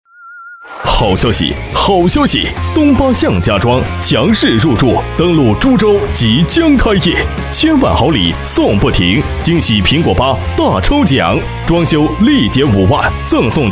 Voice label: 广告, 促销, 家装促销广告, 激情

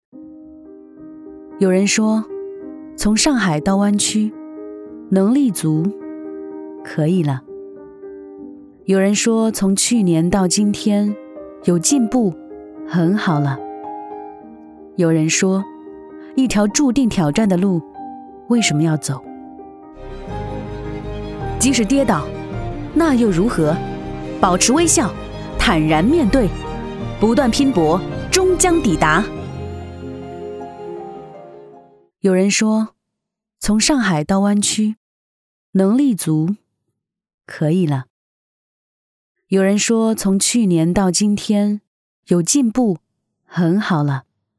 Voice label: 女 专题 人物 个人宣传; 旭势启新

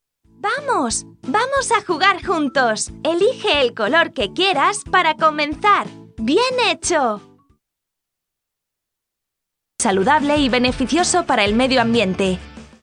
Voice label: 西班牙语女 外语 小语种 年轻

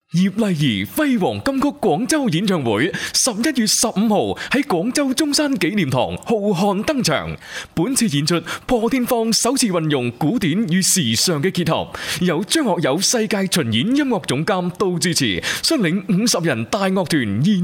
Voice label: 男粤 广告 片头片花; 粤语

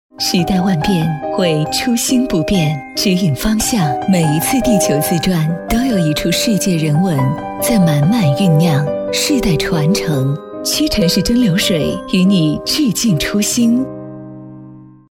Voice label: 广告 品牌形象 蒸馏水 知性; 屈臣氏蒸馏水

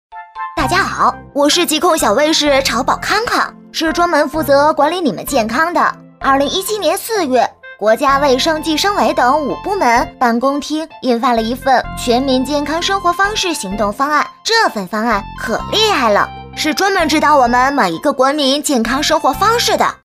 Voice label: 动画 童声 童音; 童音

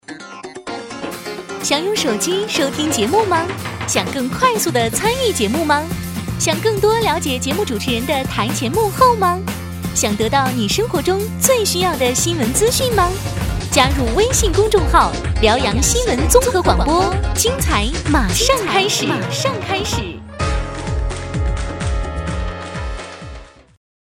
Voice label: 片头片花 新闻综合广播 清新; 辽阳新闻综合广播

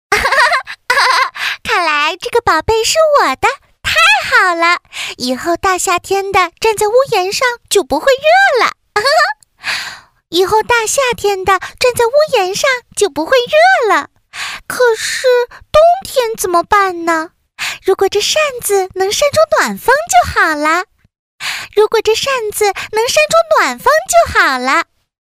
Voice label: 动画 动画片 嘲讽

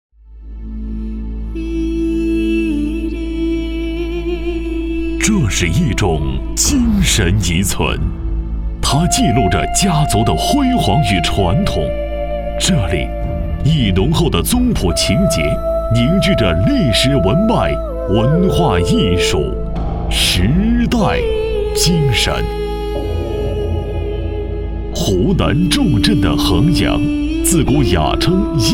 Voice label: 男国 专题 宗教; 左氏祖庙

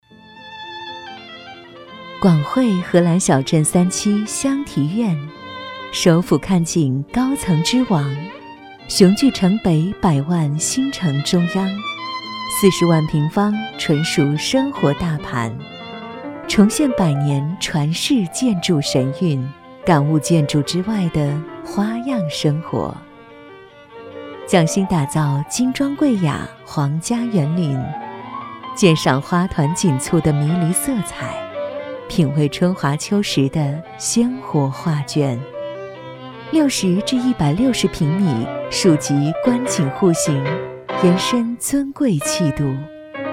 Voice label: 广告 地产 地产广告 温情

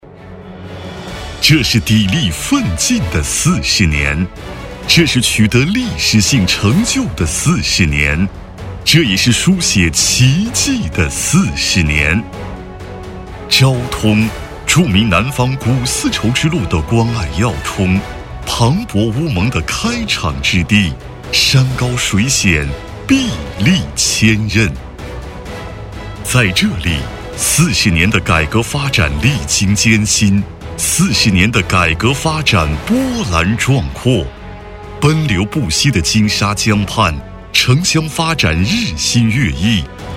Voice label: 男国 专题 政府 厚重; 昭通

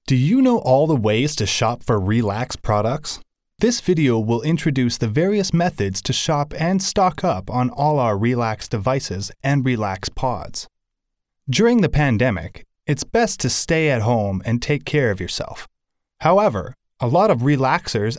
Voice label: 外籍男英 外语 美式英语 样音

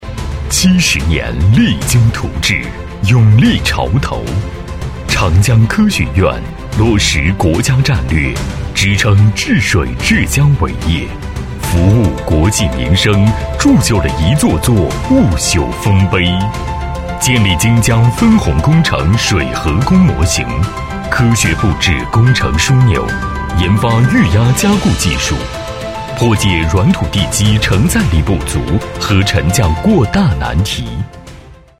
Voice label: 专题 企业 解说词 浑厚, 男; 长科院l幕解说词

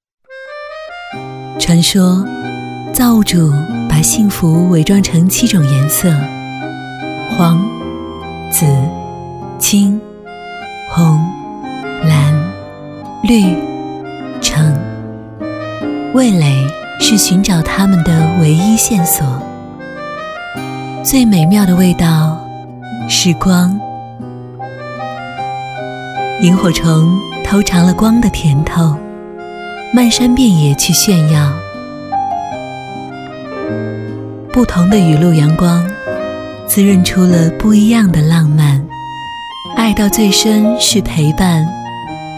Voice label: 微电影 知性; 非料理非凡之选

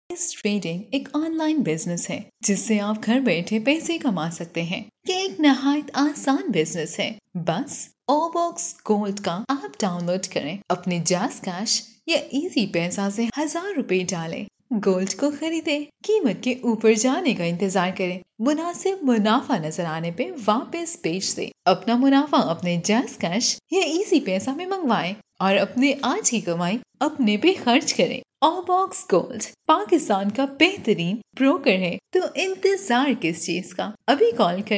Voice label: 乌尔都语女 外语 小语种 亲切

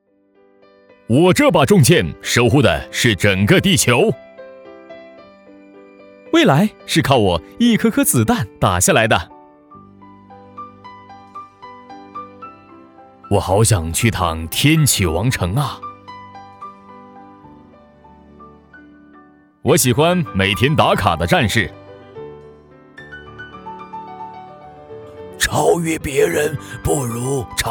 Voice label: 男国 动画 游戏 游戏角色

